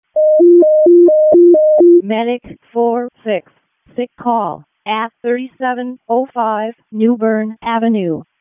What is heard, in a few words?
EMS ALERT, p25; wake